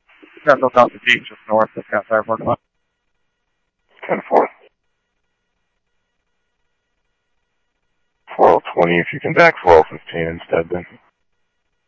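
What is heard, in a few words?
police department, scanner audio; ThompsonPeakHigh; SCTSDLE PD - PD2; ScottsdalePolice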